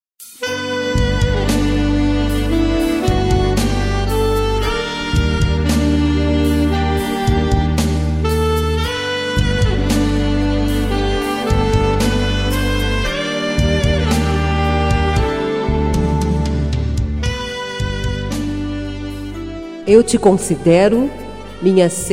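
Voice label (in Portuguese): Evangélica Aniversário de Tia, female, telemensagens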